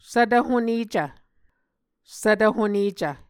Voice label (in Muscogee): "svtv honecv", Muscogee